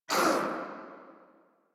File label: Pain Sniper, en